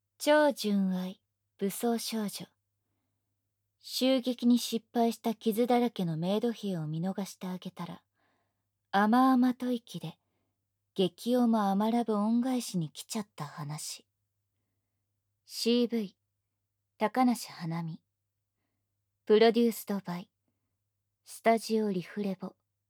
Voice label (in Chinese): 标题 朗读